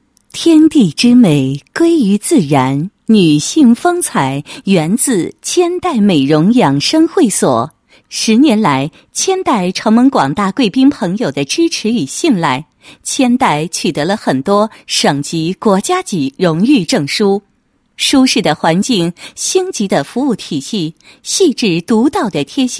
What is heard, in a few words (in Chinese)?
女 专题, 国语女声配音; 千黛美容养生会所